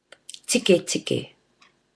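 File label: "tiketike", Māori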